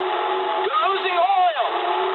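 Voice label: damaged oil mood low, speech English; War Thunder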